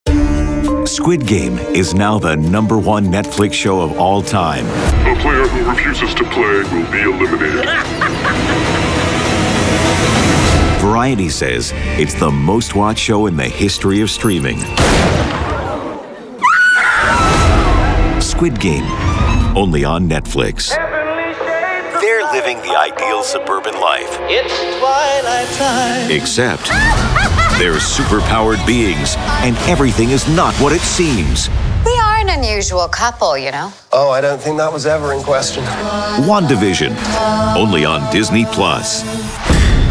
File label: promo men